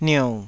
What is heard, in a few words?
"niou3", taiwanese